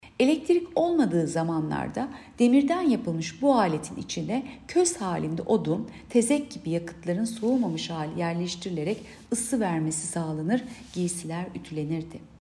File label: turkce